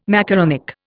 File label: "macaronic", Indian